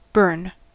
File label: "burn", us